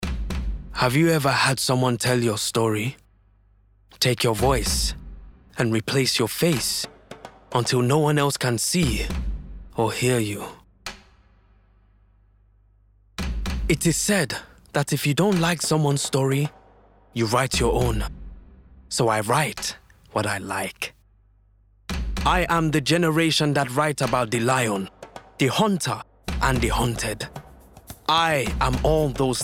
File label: Africa Ad Sierra Leonean Accent; Netflix